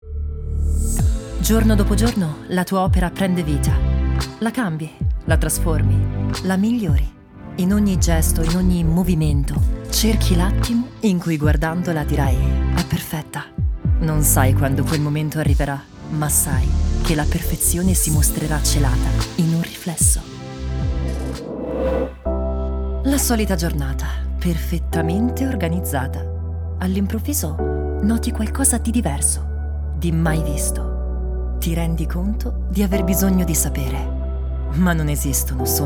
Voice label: italian commercial